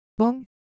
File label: Japanese, Ideo Phones